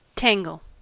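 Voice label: "tangle", US English; pronunciations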